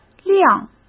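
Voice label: "辆", Mandarin Chinese; chinese flashcards; hsk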